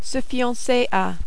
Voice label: "se fiancera", French